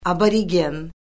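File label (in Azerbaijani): "aborigen", Azerbaijani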